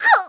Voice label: niki voice damage

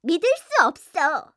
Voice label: voice Angry; lucy

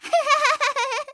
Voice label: voice Fun

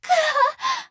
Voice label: Goddess voice Player Down

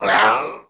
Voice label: Lizard voice skill

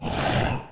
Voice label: voice stroke; Goliath

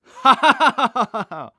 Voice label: dhan voice Fun

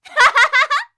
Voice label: voice Fun

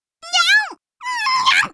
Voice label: voice Angry; Pochi

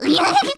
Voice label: voice delay; Pochi

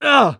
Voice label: dhan voice unbalnce

